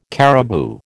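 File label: "caribou", American English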